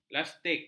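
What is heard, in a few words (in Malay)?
"lastik", Malay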